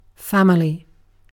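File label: "family", British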